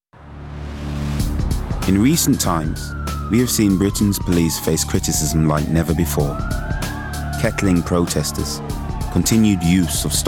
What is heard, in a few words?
Documentary